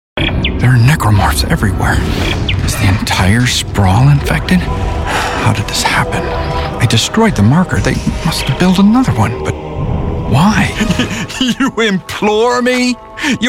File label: Voice Videogame Character